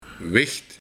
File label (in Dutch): "Wicht", Meisje